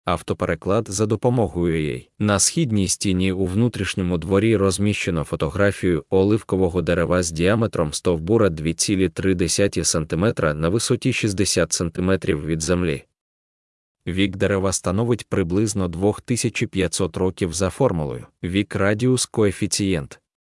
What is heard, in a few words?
ukrainian